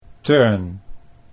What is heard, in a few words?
"turn", Dutch